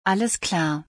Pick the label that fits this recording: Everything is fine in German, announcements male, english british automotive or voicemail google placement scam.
Everything is fine in German